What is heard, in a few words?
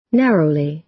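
"narrowly", American English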